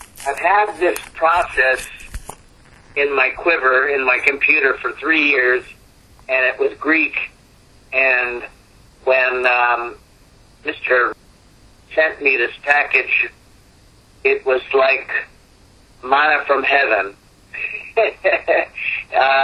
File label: Testimonial; Empower Yourself Pack